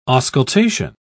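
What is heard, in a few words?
"auscultation", British English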